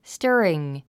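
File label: "stirring", 英単語 発音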